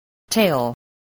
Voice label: "tale", English